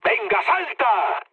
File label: bailout, mood med, speech; War Thunder